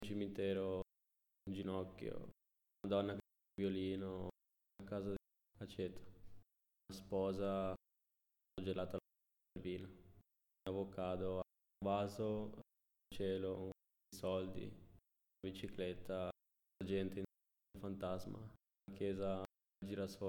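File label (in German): Zusammengefugte Worter Schnell Italienisch, male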